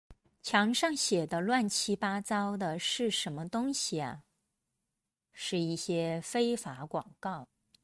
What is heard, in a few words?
denoised; Chinese Idiom 乱luàn七qī八bā糟zāo